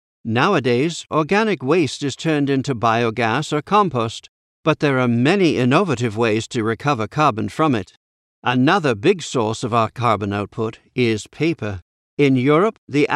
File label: UK samples